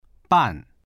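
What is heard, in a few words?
"ban", Mandarin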